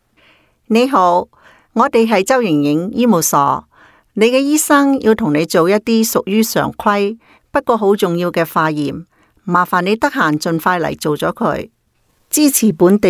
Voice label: Cantonese Female